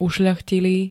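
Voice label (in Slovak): "ušľachtilý", Slovak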